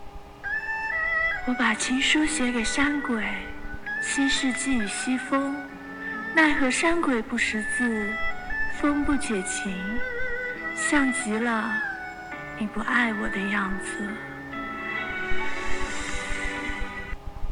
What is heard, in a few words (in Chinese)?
古风旁白